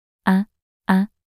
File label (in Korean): "아아" 낱소리 여자